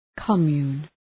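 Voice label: "commune", English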